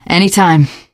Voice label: you are welcome, teen girl; source engine; left 4 dead 2; survivor